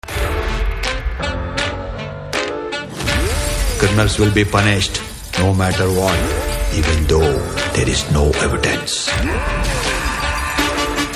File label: Teaser BGM with Dialogue Ringtone; Ramarao On Duty; Ravi Teja